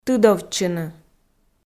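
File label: "тыдовтчыны", Komi, female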